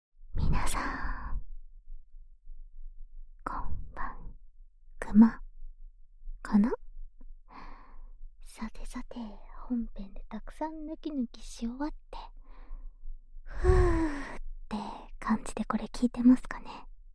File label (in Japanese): 寝かしつけ舐めフリートーク♪; おまけ音声特典